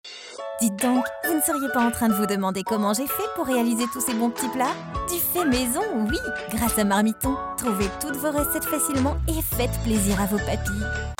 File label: medley spots radio